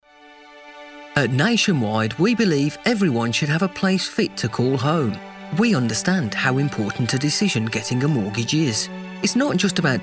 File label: brummie